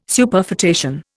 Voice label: "Superfetation", Indian